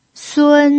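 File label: "sun", Mandarin Chinese pinyin, first tone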